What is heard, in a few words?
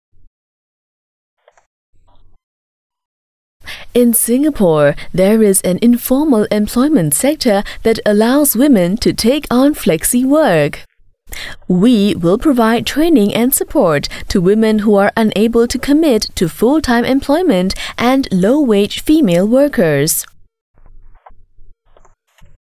English Corp Casual, Singaporean Female